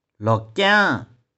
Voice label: "loh4kiang6", 玉環

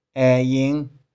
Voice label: "ae3ing5", 玉環

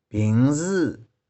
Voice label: "bing1zy1", 玉環

